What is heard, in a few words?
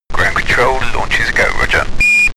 astronaut chatter